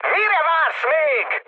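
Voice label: attack position, mood high, Hungarian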